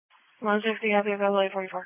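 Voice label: Police Patrol Dispatch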